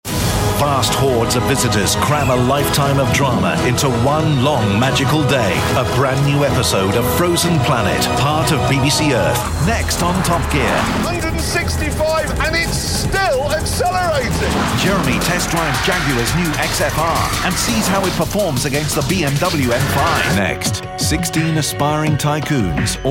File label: promos trailers